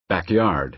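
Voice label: "backyard", American English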